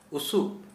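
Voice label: Santali male weak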